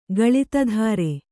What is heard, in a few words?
"ಗಳಿತಧಾರೆ", Kannada